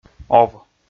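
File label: "ов", Komi, male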